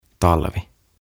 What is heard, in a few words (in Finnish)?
"Talvi" Winter